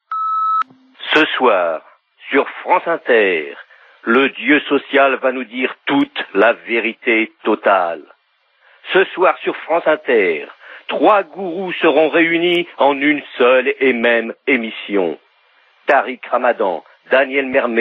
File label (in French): repondeur; FSE